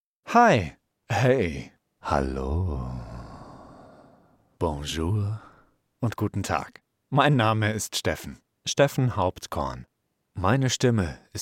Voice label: Voice Over Introduction

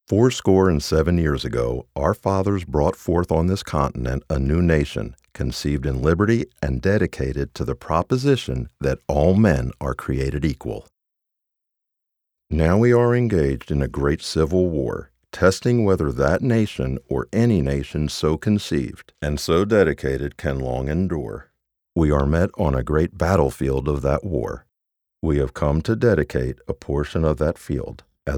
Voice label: Gettysburg Address, dry VO

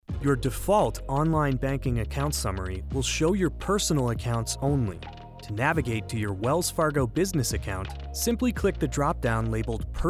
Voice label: eLearning

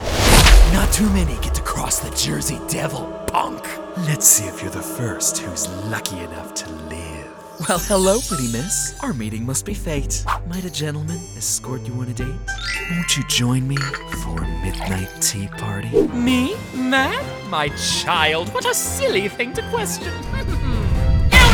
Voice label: Character Demo

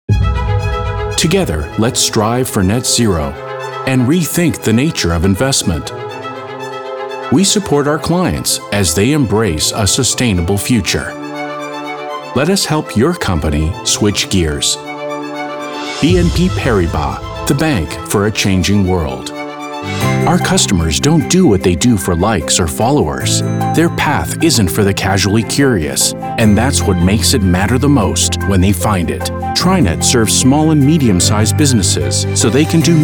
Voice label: VO Corp Ind Narration

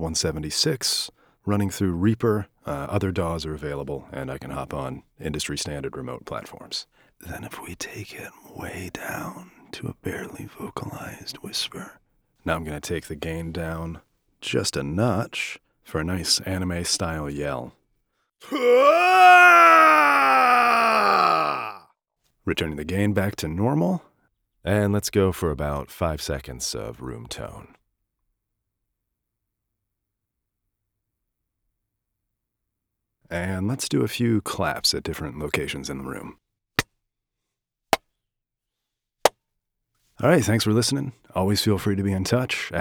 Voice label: Home Studio

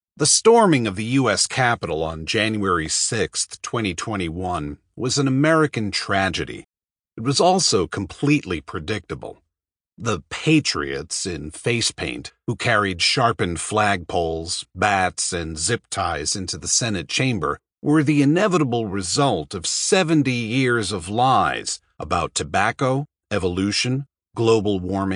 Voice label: Audiobook

